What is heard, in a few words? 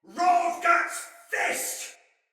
Attack Heavy Soldier Male